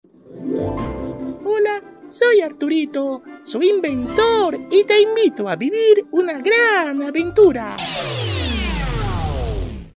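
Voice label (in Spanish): VOZ NIÑO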